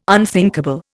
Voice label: "unthinkable", Indian